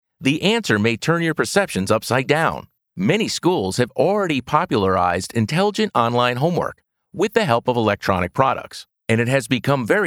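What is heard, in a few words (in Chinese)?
外籍男声 日常口语 美式英语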